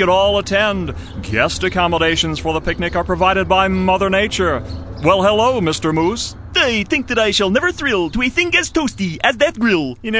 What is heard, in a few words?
Character